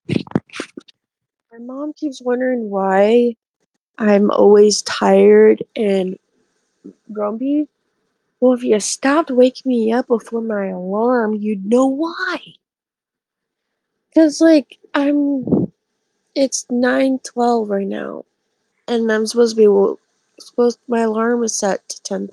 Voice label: voice message